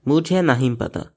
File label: i dont know, hindi; मुझे नहीं पता; mujhē nahīṁ patā